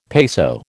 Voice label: "peso", American